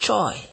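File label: "chuy", Nigerian Pidgin, male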